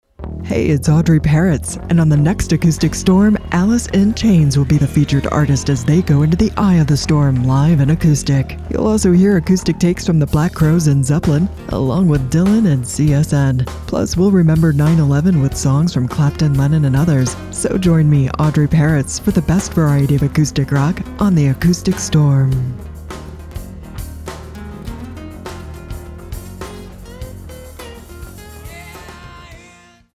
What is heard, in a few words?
PROMO; Acoustic Storm; USRN-FM; kkcl-am